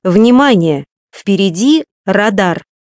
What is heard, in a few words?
Redlight Camera, voice female; RU; MioMap C220; iGO831